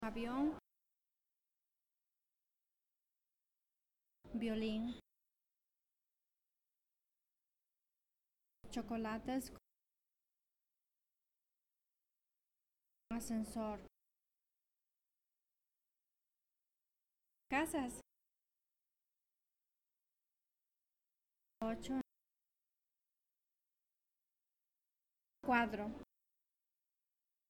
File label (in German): Zusammengefugte Worter Langsam Spanisch